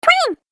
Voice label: Cartoon Game Voice "Twang"; Cartoon Voice Sound Effects